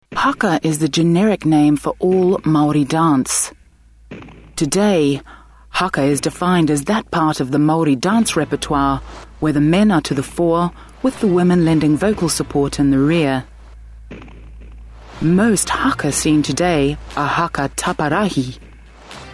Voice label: narration indigenous culture